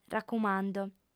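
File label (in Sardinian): "racumando", female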